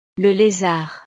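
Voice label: "le lezard", French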